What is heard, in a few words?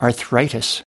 "arthritis", us